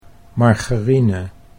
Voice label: "margarine", Dutch